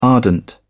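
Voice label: "ardent", English, British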